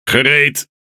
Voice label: Speech Pilots Torpedo Ready, NL; World Of Warships

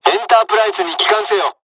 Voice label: evac, dialogs japanese; midway us; War Thunder

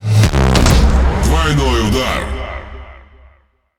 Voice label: Ru Speech Double Kill; World Of Warships

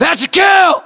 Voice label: inform killfirm infantry, US; localized english; battlechatter

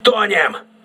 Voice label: voice message hull breach, crew ships russian

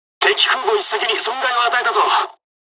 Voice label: dialog, dialogs japanese; War Thunder

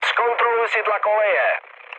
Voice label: damaged oil, speech cze, mood low; War Thunder

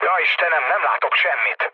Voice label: searchlite, mood low, speech hun; War Thunder

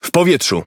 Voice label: Speech Pilots Torpedo Airborne, PL; World Of Warships

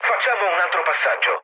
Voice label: bomb failure mood low, speech it; War Thunder

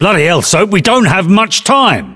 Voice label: voiceovers UK

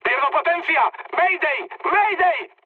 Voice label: damaged engine, mood high, speech; War Thunder

